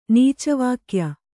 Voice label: "ನೀಚವಾಕ್ಯ", kannada

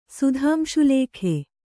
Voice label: "ಸುಧಾಂಶುಲೇಖೆ", Kannada

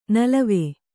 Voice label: "ನೆಲವು", Kannada

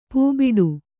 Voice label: "ಪೂಬಿಡು", Kannada

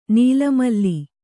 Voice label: "ನೀಲಮಲ್ಲಿ", kannada

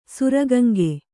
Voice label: "ಸುರಗಂಗೆ", Kannada

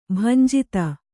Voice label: "ಭಂಜಿತ", Kannada

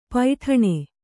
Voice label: "ಪೈಠಣೆ", Kannada

